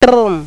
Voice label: woman boy